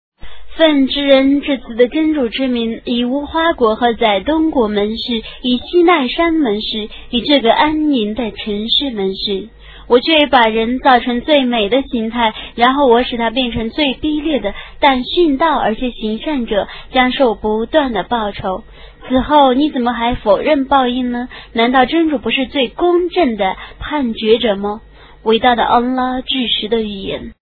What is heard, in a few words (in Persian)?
ترجمه گویای قرآن کریم به زبان چینی; سوره تین